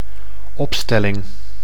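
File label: "opstelling", Dutch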